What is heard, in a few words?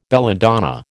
"belladonna", American